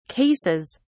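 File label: "cases", British English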